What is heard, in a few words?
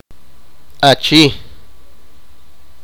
sipakapense; man adult male